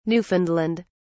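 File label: "newfoundland", British English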